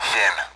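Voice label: Speech Sound Russian Common AWACS Digits; DCS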